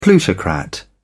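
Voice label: "plutocrat", British English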